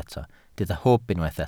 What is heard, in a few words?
"hwb", Welsh